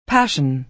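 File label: "passion", American English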